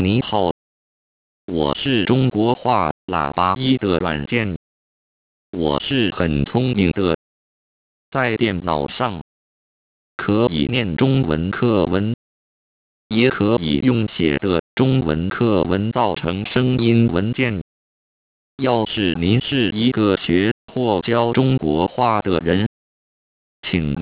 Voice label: welcome chinese